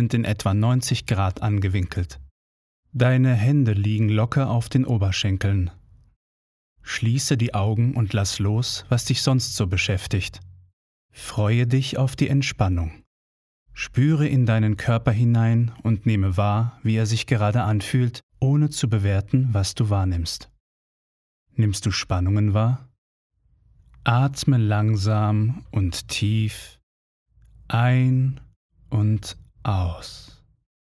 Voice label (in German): App Healthcare entspannt ruhig